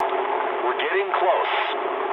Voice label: eng near waypoint mood low, speech eng